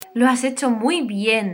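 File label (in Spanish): "muy bien", Spanish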